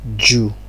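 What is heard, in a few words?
"jew", Nigerian Pidgin, male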